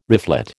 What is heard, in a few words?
"reflet", American